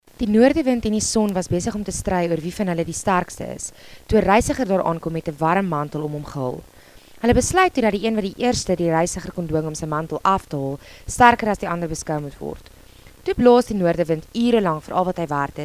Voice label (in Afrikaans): jong spreker